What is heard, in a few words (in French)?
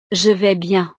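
"je vais bien", French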